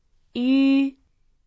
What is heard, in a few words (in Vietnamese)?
"ue", tiếng trung; nguyên âm